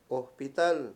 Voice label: "hospital", Mayo; Mundo moderno

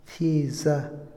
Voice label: "flauta", Otomí, hombre; Habla; INALI

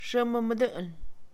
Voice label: frecuentemente, Mixe, Mujer; Tiempo; INALI

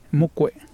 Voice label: "enagua", Mexicano Temixco Xoxocotla

